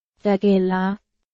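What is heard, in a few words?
Burmese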